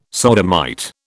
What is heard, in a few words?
"sodomite", British